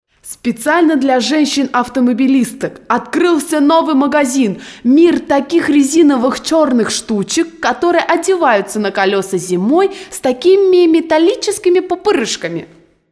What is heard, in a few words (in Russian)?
Diktori studii Reclama; Bashnya